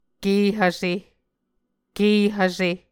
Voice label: "ke hvse", Muscogee

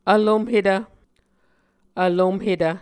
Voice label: "vlomhetv", Muscogee

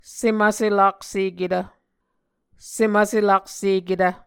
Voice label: "sem vselakseketv", Muscogee

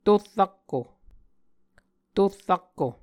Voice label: "tor rakko", Muscogee; Mvskoke Words with Repeated Consonants; Martin, Jack B., and Margaret McKane Mauldin. 2023. A Dictionary of Creek/Muskogee

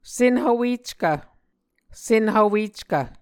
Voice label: "senhvweckv", Muscogee

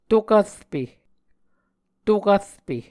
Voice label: "to kvrpe", Muscogee

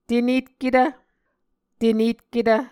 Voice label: "tenetketv", Muscogee

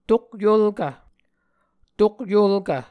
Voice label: "tokyolkv", Muscogee

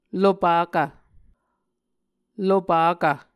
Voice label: "lopakv", Muscogee

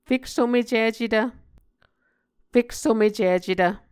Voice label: "feksomecicetv", muscogee

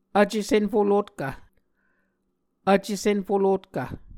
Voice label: "vce-senfolotkv", muscogee

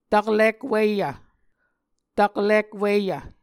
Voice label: "taklik wiyv", Muscogee

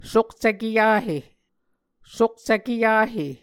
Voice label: "sukcv-keyahe", Muscogee

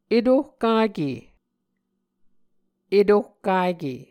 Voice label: "etohkake", muscogee

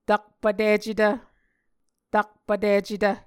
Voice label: "takpvticetv", Muscogee

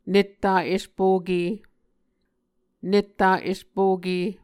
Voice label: "netta espoke", Muscogee

